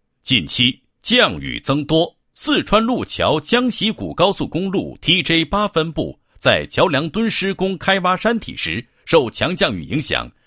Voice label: 男, 项目; 四川路桥江习古高速公路项目

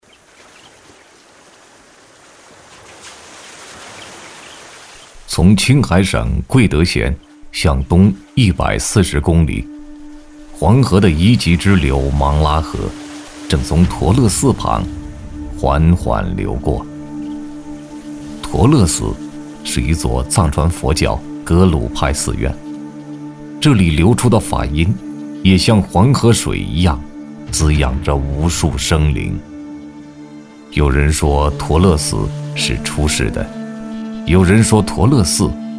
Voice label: 男100号-宗教-佛教类 陀乐寺 磁性 神秘, 国语男声配音

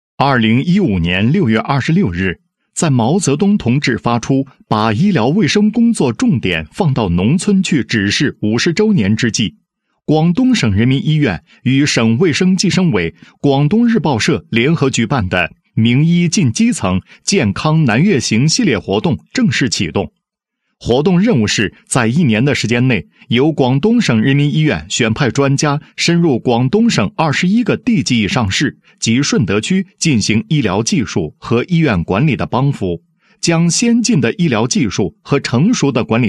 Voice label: 男-医疗专题; 名医进基层 健康南粤行活动

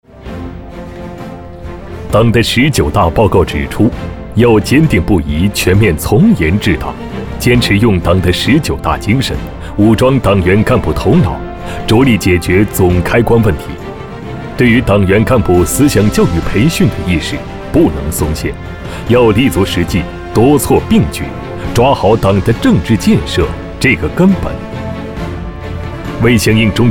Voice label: 男 党政方面

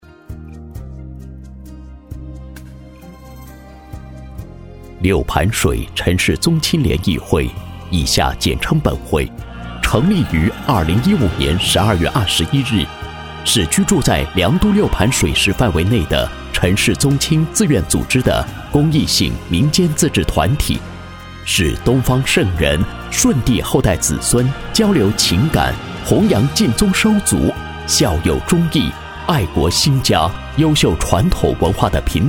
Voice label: 男 宗亲联谊会 舒缓深沉

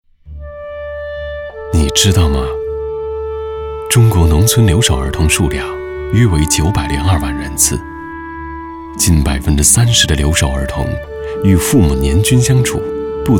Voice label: 男 儿童公益广告 旁白; 留宝

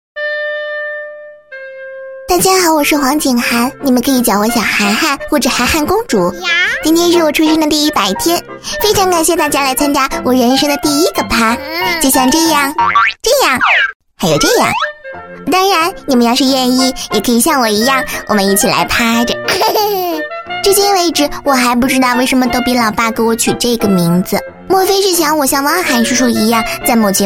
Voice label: 女童 搞怪 自言自语; 百天宝宝自白

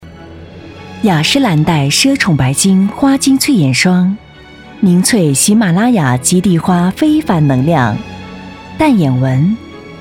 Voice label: 女 品牌广告 眼霜

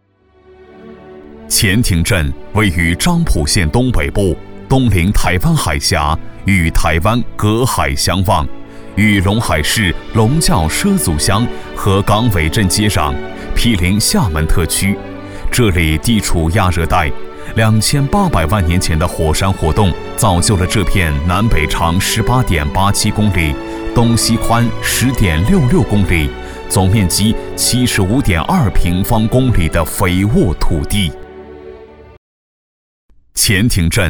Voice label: 男 地区宣传; 前亭镇

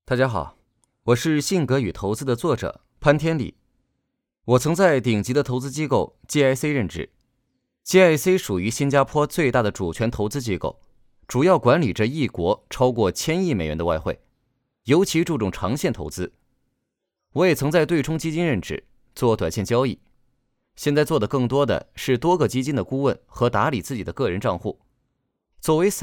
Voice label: 男 课件 陈述类课件

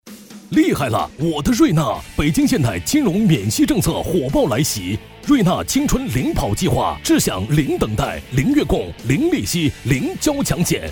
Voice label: 男 广告配音; 瑞纳

